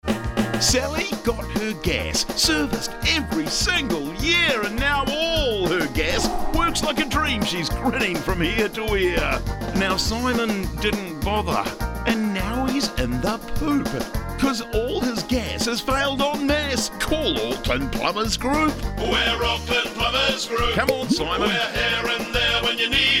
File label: Radio advert Gas Servicing